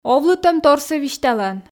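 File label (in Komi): овлытӧмторсӧ висьталан, Komi, female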